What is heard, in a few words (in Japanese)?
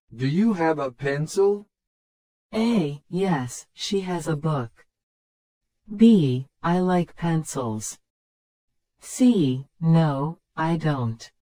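スロー, リスニング; You will hear a question or statement and three responses spoken in English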